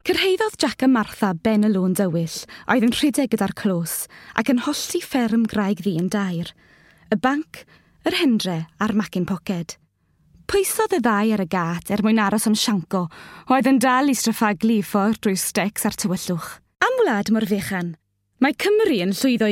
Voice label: Welsh

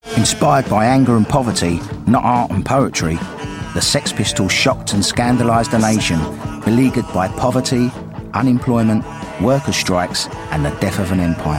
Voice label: Narration

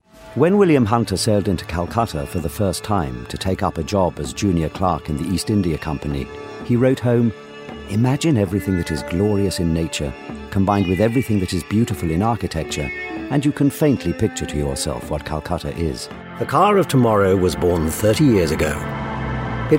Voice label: Narration

